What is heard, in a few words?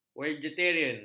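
"vegetarian", Malay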